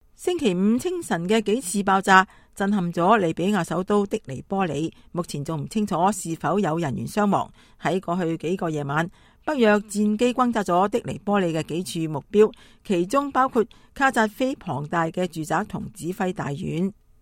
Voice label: News Libya, cantonese

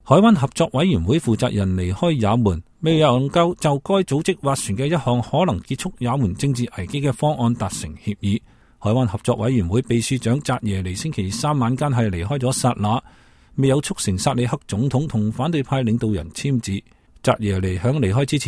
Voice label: Cantonese Yemen

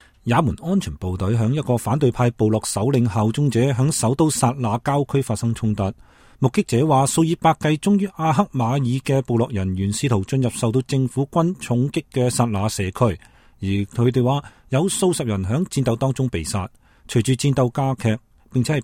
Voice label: Cantonese Yemen

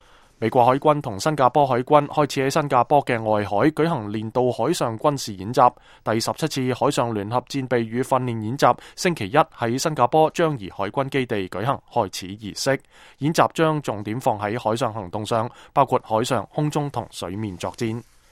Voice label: Singapore, cantonese

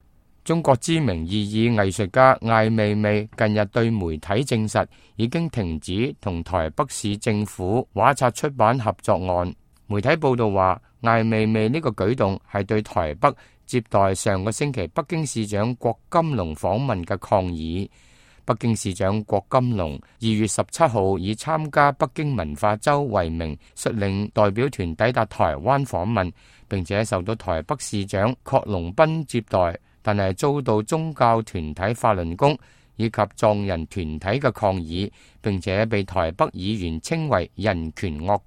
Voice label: cantonese, news

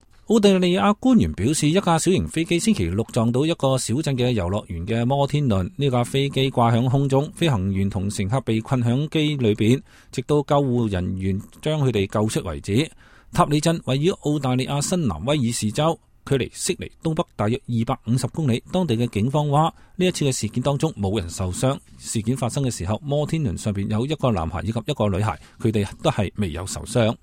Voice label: Australia, cantonese

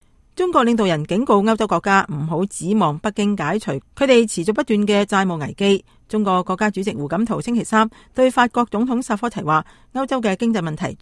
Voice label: cantonese; China

